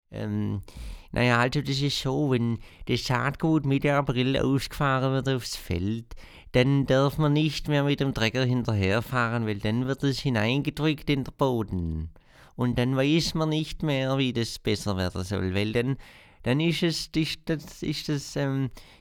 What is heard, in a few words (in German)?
schwaebisch